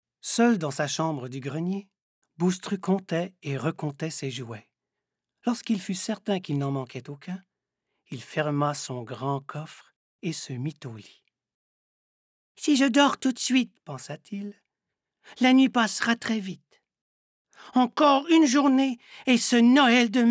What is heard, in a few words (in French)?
voix livre enfant